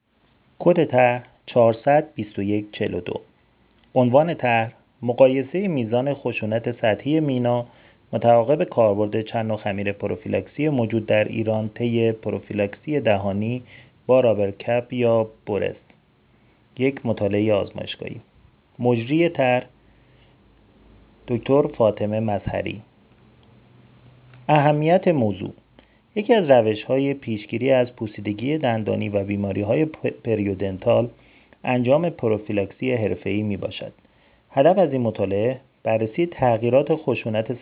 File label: voice recorder; tarjoman